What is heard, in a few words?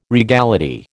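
"regality", American English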